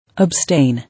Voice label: "Abstain", English

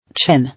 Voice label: "Chin", English

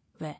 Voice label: "Wear", English

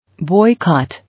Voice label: "Boycott", English

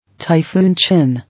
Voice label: "Typhoon", English

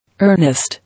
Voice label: "Earnest", English

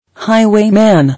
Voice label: "Highwayman", English

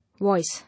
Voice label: "Voice", English

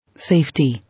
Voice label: "Safety", English

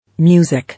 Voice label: "Music", English

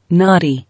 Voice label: "Naughty", English

